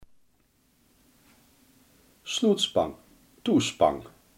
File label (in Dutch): "sluitspeld", Limburgs